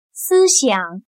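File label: "思想", Chinese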